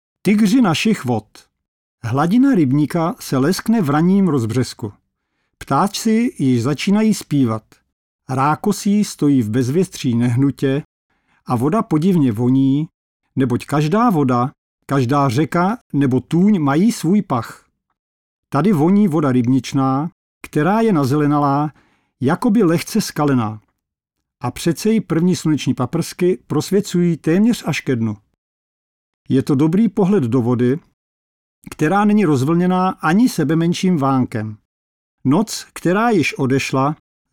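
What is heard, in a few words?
czech male narration; voice demos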